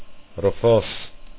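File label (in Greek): "rofòs", Greek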